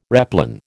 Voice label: "replan", American